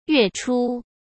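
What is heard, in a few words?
"月初", Mandarin Chinese; hsk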